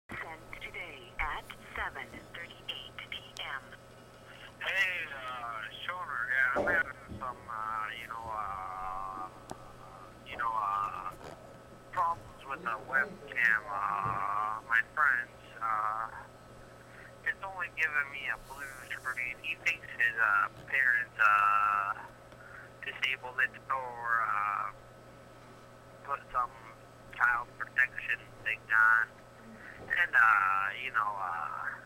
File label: Voicemails